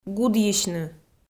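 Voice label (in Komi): "гудйысьны", Komi, female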